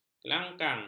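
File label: "kelangkang", Malay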